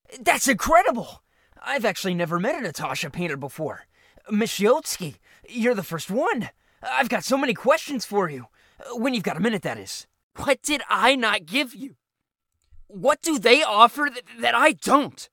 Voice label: Character